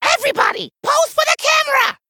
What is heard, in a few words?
Male Gnome Play